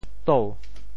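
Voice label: "tou2", Teochew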